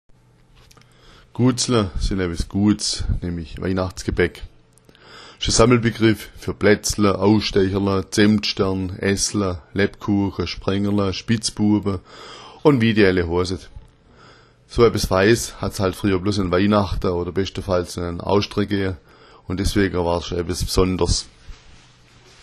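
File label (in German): "guatsla", schwäbisch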